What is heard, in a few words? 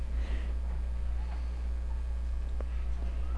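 "lead", spoken word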